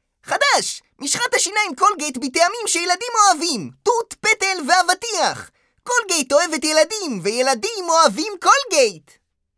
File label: hebrew animation